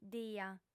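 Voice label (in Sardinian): "dia", female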